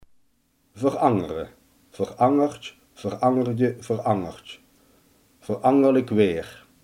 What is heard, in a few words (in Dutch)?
"veranderen", limburgse